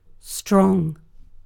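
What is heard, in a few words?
"strong", British English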